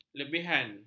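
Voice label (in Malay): "lebihan", Malay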